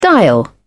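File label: "dial", British English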